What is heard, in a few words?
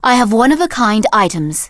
Blood Elf Female Standard Vendor